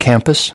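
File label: "campus", English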